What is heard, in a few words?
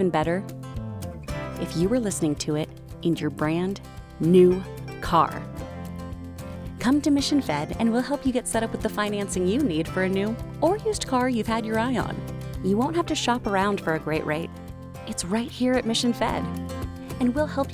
Auto Loan Radio